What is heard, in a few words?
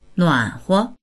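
"nuan3huo", Mandarin Chinese; 暖和; nuǎnhuo; 暖かい; HSK４級必修単語集（H～O音声付）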